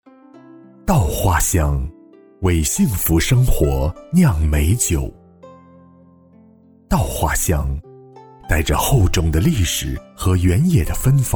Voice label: 男 企业宣传片

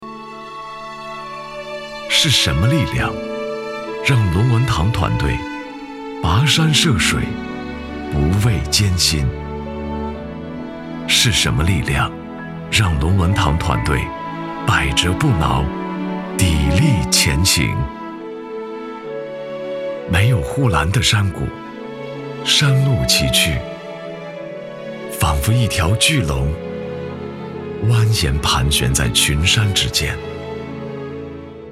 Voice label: 男 专题, 男; 龙文堂

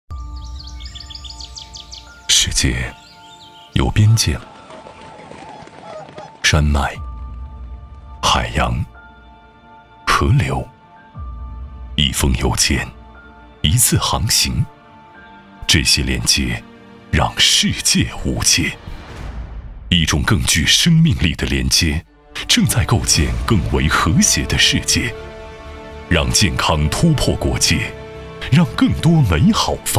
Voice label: 男, 宣传片; 国药外贸

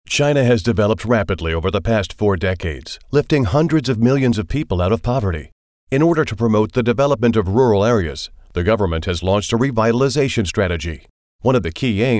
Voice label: 企业介绍 低沉浑厚

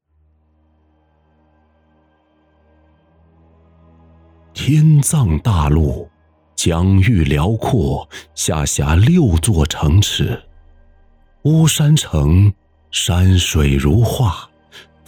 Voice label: 男 动画游戏 浑厚 低沉 气势; 天藏大陆

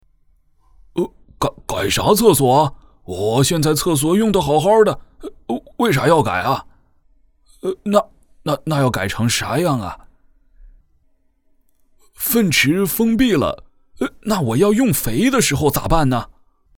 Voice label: 大爷角色; 李大爷角色厕所革命

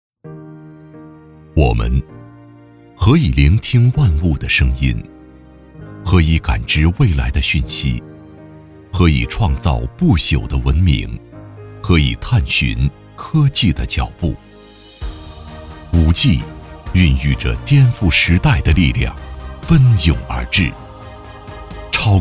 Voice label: 男 广告; 移动

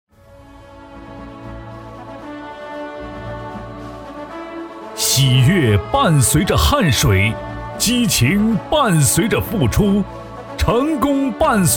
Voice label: 男 颁奖 旁白

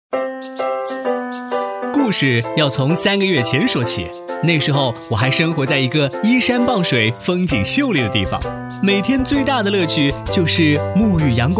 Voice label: 男,活泼解说; 一颗好米

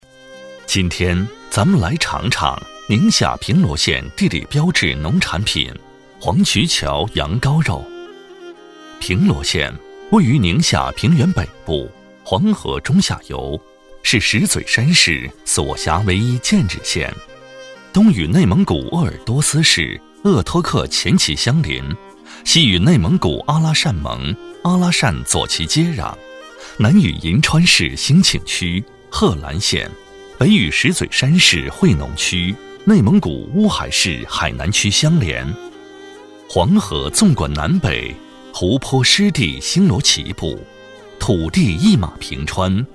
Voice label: 男 专题 介绍 羊羔肉; 黄渠桥羊羔肉

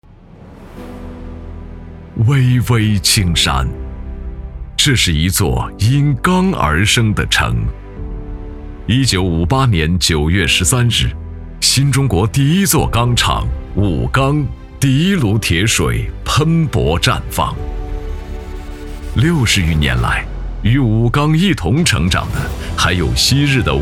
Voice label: 男 专题; 中冶南方

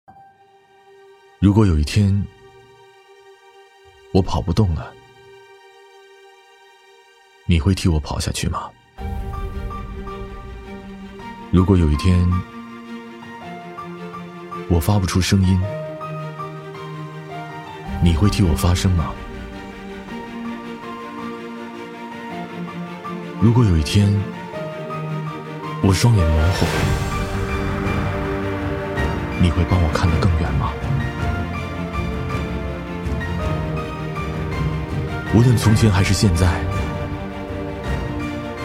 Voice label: 男 公益片 公安 人民警察节; 我们一直都在

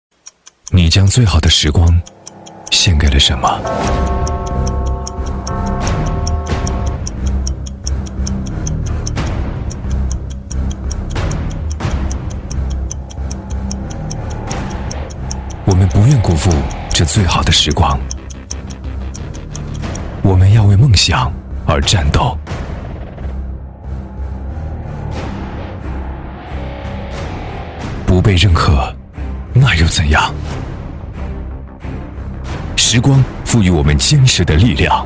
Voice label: 男, 广告, 大气, 时尚; 时光概念片

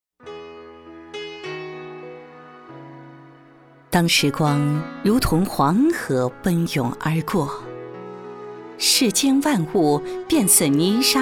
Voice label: 女-城市宣传; 你好银川

